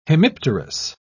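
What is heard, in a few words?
"hemipterous", British English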